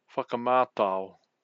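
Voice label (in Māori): "whakamatao", Māori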